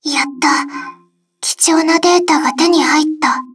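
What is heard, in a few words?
victory, Japanese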